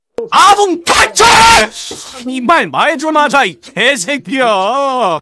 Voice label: jeers; scout